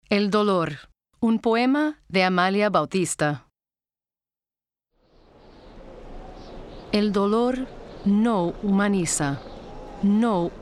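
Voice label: SPA LA Narr Dramatic, Spanish Latin American narration dramatic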